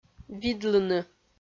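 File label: "видлыны", Komi, female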